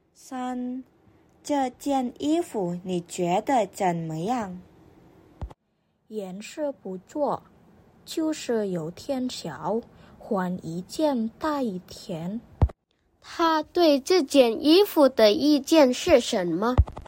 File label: Mandarin; mandarin placement test hsk4